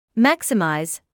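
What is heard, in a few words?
"maximise", British English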